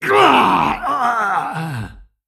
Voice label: Male Kvaldir Death

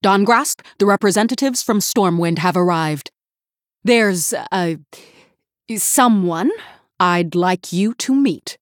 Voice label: Female Human; Cariel

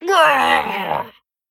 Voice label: Male Geist Death

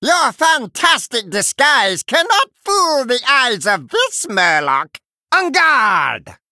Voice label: Male Murloc; Finley

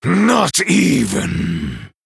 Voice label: Male Night Elf MIRROR START

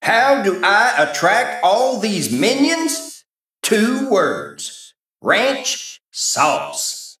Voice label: Male Mech Flavor

